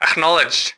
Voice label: ackno ru